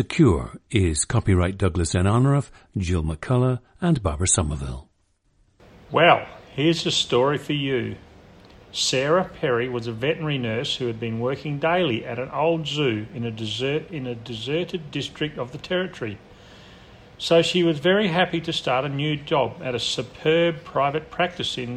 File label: australia